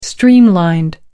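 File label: "streamlined", English, British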